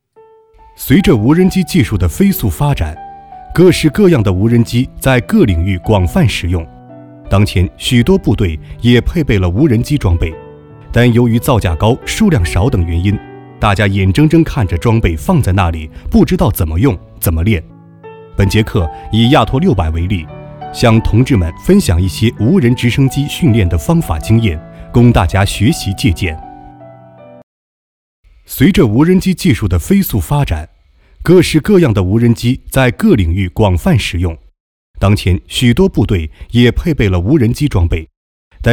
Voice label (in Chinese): 国语男, 解说, 无人机教学